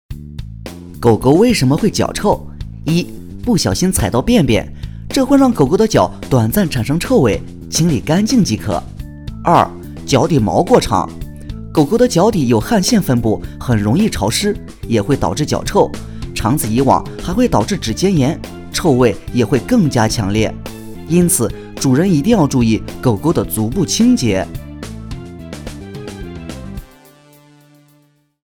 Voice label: 解说 自媒体

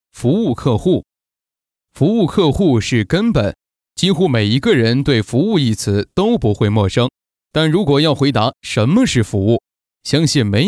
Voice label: 课件服务客户

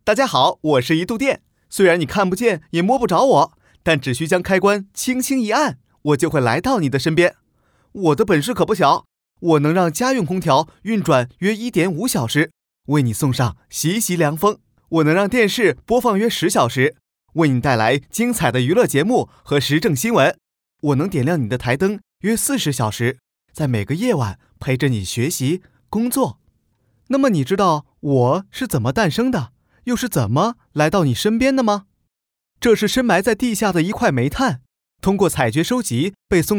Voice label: 解说词 年轻解说

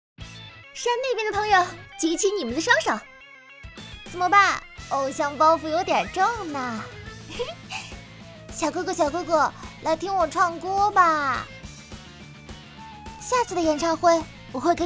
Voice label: 女童 活力少女; 万花演唱会

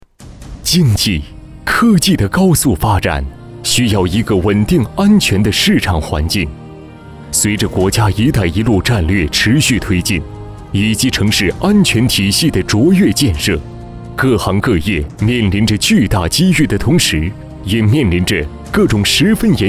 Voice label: 专题 科技磁性

